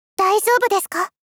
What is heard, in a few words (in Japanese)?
大丈夫ですか・・・? 少女×中音域